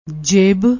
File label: "jeb", Punjabi